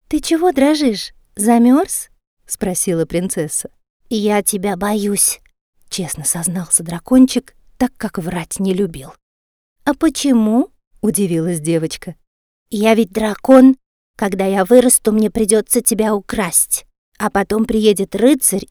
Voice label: Character Childrens Book RUS